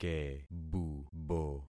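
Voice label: "kebubo", concatenated syllables; Affixing experiment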